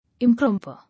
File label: "Improper", English